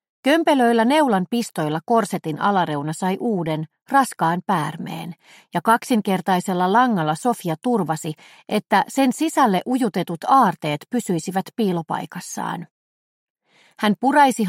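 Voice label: Finnish, Audiobook, female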